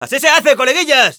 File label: positive vocalization, es; Scout